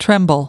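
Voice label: "tremble", US English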